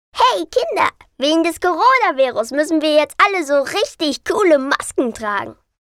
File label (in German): Comic Stimme fun